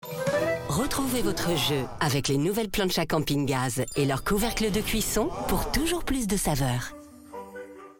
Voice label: billboard; campingaz

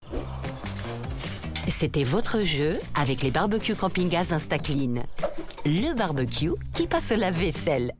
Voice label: billboard; campingaz

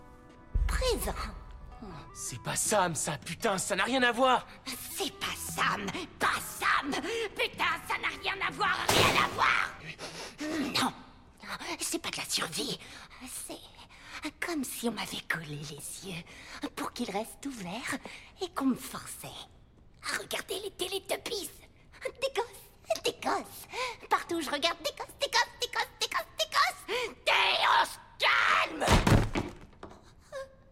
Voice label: doublage sorciere

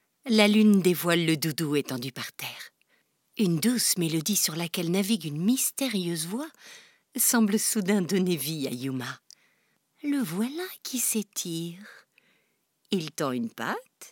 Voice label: enfants; doudou blues

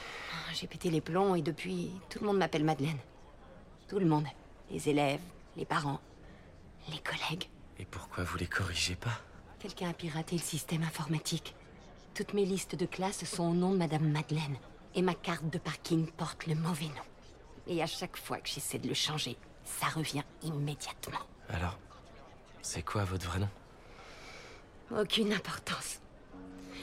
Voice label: doublage

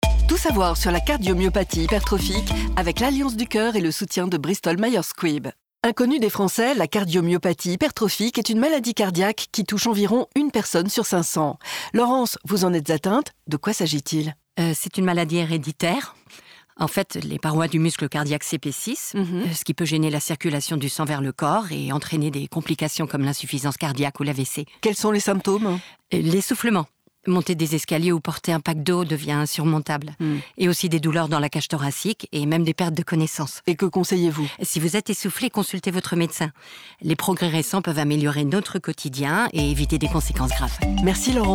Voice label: pub temoignage; labo bms

